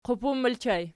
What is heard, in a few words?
"qupun", Archi